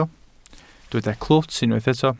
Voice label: "clwt", Welsh

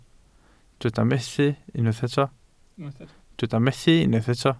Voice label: "methu", Welsh

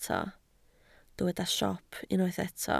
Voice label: "siop", Welsh

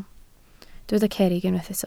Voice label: "cerrig", welsh

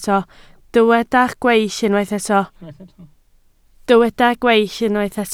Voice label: "gweill", Welsh

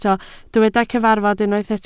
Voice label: "cyfarfod", Welsh

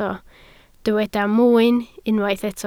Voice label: "mwyn", Welsh

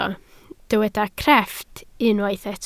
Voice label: "crefft", Welsh

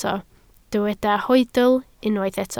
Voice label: "hoedl", Welsh

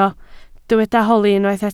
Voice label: "holi", Welsh

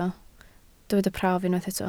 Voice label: "prawf", Welsh

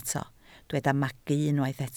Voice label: "magu", Welsh

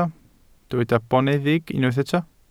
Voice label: "boneddig", welsh

